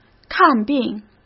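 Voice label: "看病", Mandarin Chinese; hsk; chinese-flashcards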